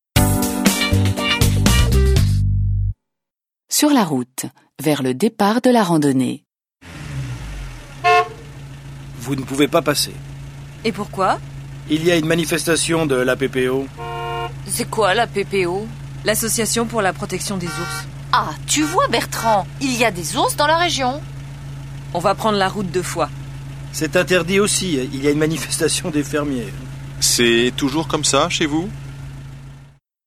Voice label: French